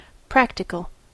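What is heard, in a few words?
"practical", English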